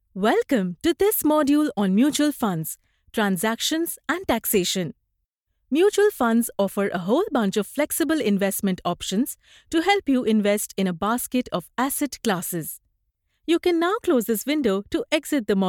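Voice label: elearning English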